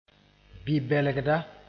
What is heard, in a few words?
"bi-bɛlgda", moore